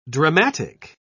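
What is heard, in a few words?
"dramatic", English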